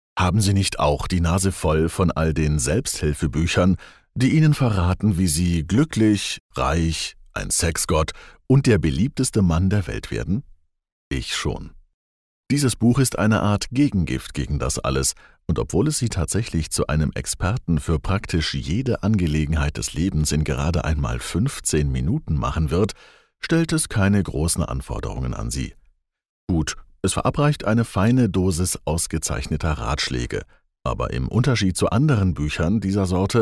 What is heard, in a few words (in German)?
hoerbuch; ein mann ein genie; LOFT VOICES